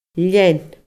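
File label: "lean", Scottish Gaelic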